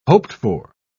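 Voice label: "hoped-for", British English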